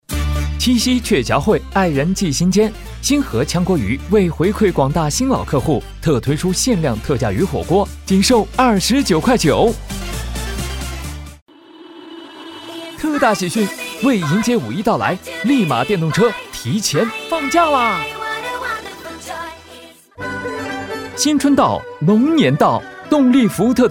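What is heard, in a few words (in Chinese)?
广告促销合集; 广告2促销合集成品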